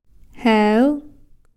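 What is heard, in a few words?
"song", Takelma word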